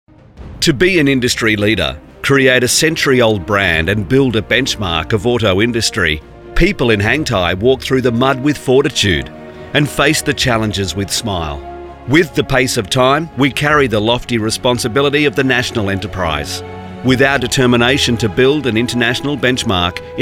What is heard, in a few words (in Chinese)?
宣传片英语配音; 浙江杭泰汽车零部件有限公司